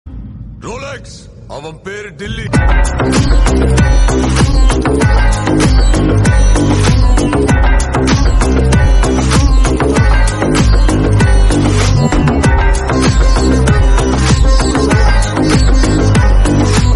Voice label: dialogue tamil; rolex; avan peru dilli; vikram